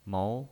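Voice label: "mou", pinyin voice, Mandarin Chinese, second tone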